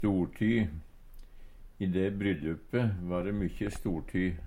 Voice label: storty, Numedalsmål; Substantiv inkjekjønn

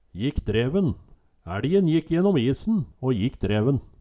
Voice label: jikk dreven, Numedalsmål

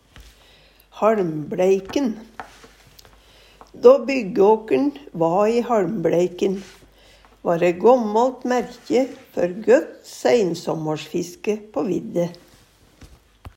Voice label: haLmbLeiken, Numedalsmål

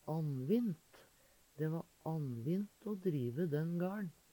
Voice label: "annvint", Numedalsmål; Dæ va annvint o drive den gaL`n.; Adverb

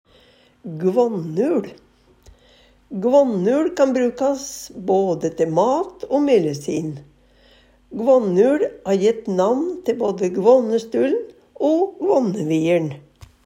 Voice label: gvannul, Numedalsmål

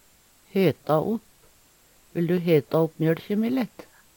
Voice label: heta opp, Numedalsmål; varme; Vil du heta opp mjølkje mi litt?

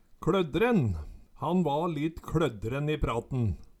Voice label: kLoddren, Numedalsmål

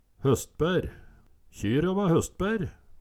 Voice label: hostbaer, Numedalsmål; Kjyra va høstbær

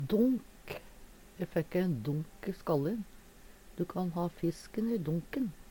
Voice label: "donk", Numedalsmål; Hushald, mat, drikke

